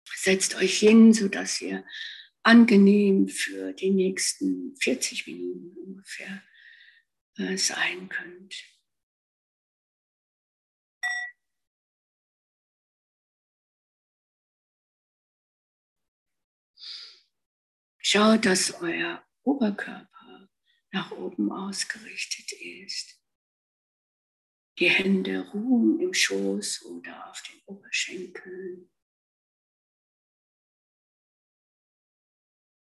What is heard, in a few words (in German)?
Meditation Rainmethode